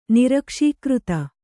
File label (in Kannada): "ನಿರಕ್ಷೀಕೃತ", Kannada